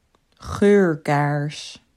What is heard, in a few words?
"Geurkaars", Dutch